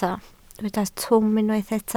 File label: "twym", Welsh